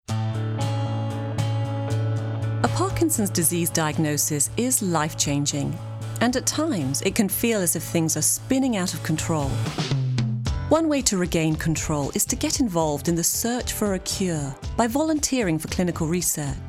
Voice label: Explainer British Accent